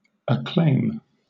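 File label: "acclaim", British English; pronunciation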